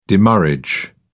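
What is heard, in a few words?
"demurrage", British English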